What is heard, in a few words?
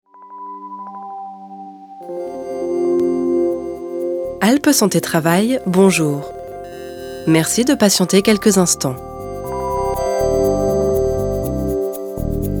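fr Telefon, französisch; sprecher; franzoesisch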